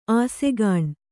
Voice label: "ಆಸೆಗಾಣ್", Kannada